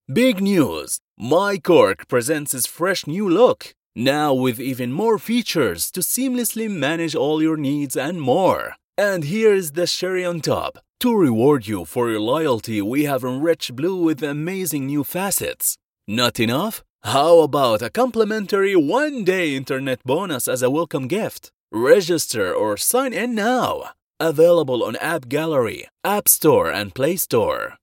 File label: english promos